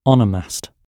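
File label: "onomast", British; gb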